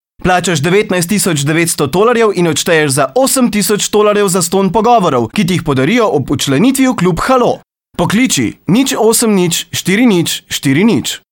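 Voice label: slovenian male commercial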